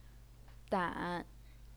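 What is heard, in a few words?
"dan", third tone, Chinese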